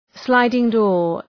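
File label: "sliding door", English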